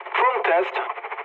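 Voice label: German chat, speech; War Thunder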